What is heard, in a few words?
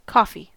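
"coffee", US English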